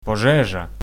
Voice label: fire, ukrainian